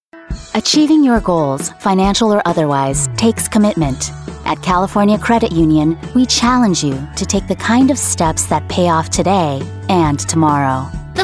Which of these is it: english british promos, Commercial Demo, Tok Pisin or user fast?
Commercial Demo